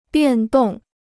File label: "变动", Chinese